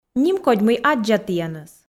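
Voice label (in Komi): нимкодь мый аддза тіянӧс, Komi, female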